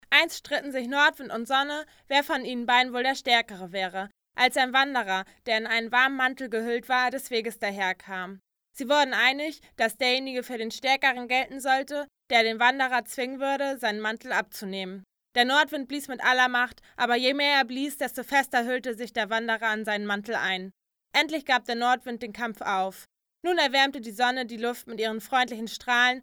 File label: female, 0 km/h, lombard